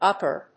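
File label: "Ukr.", English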